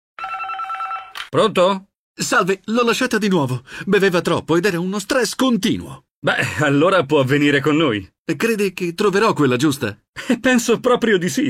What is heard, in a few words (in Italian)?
Spot Radio; Legambiente